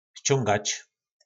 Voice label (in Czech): "Ščóngač", nářečí Po našimu; Stahovat